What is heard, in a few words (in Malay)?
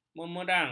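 "mengerang", Malay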